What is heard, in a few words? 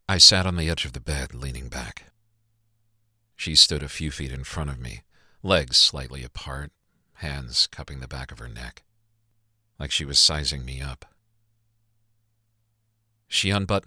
Erotic Narration, Audiobook; Audiobooks